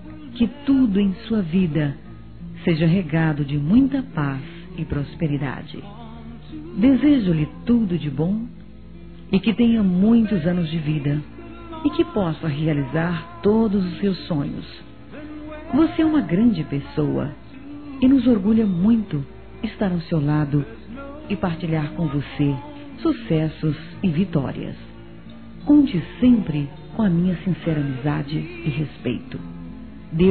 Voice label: aniv. cunhada f

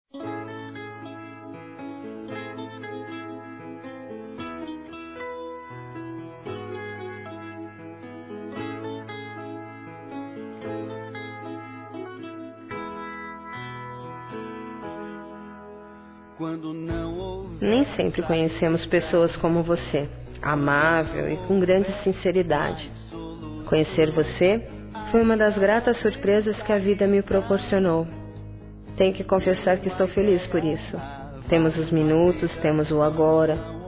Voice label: Recente Feminino; Dia Dos namorados; Telemensagens 2017

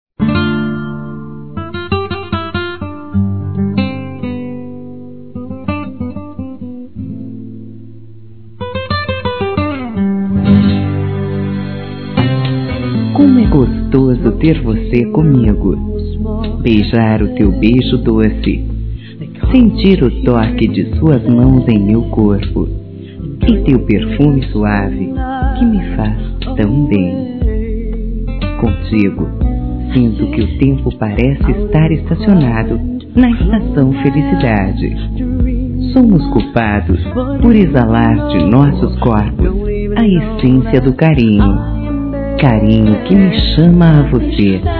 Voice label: ROMANTICO Feminino, Dia Dos namorados; Telemensagens 2017

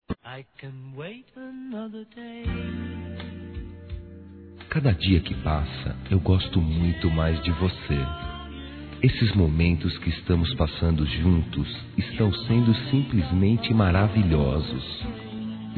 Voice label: ROMANTICA SUAVE M, Telemensagens Românticas

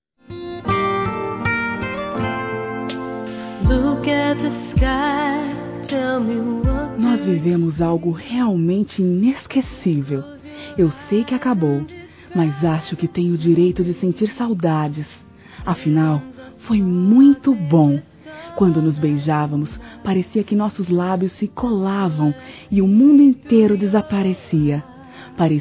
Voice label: Sensuais, fem; SAUDADE DE EX; Telemensagens

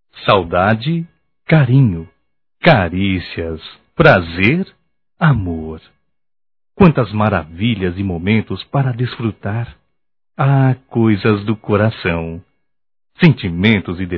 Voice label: Mensagem Romântica Masculino, Voz; Telemensagens; Só Voz; Telemensagens 2017

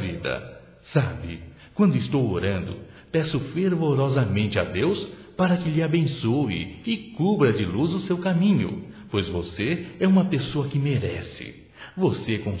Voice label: Aniversário Irmã Evangélico Masculino; Aniversarios; Telemensagens 2017

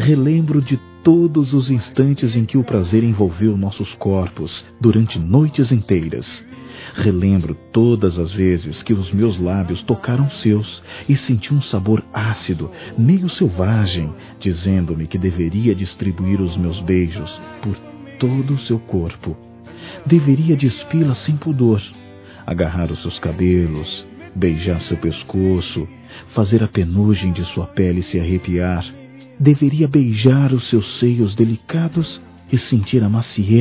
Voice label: ANIV NAMORO (M), Telemensagens Sensuais masc

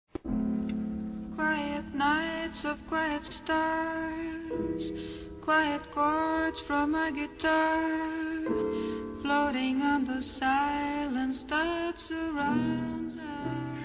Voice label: DESC. ROMANTICA, Desculpas, masc; Telemensagens 2017

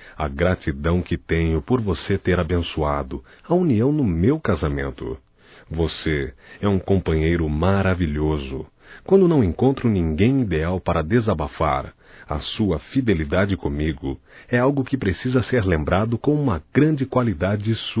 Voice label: Aniversário Cunhado Masculino; Aniversarios; Telemensagens 2017

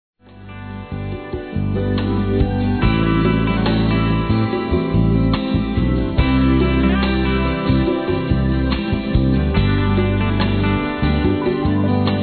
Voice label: Amizade, fem; Telemensagens; Saudades

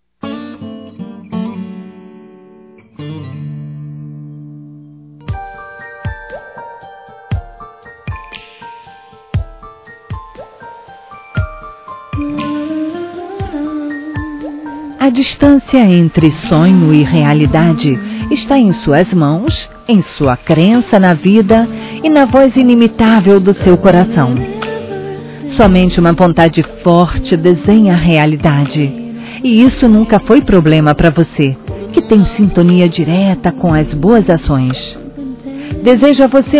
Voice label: ANIVERSÁRIO NORA GENRO F, Telemensagens, Fem; Aniversários; Aniv Familiar

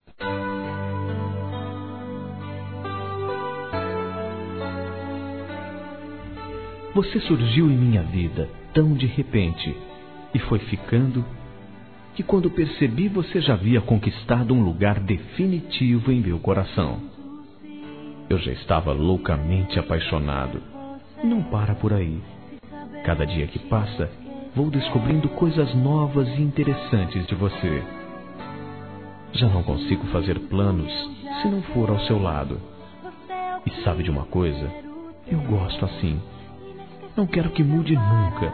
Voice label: ROMANTICA SUAVE M

